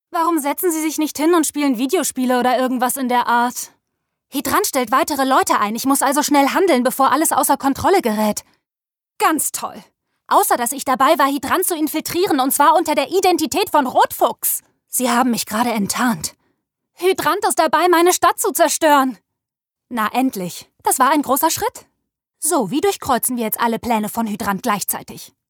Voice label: Animation